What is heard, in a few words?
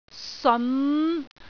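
"seon5", Cantonese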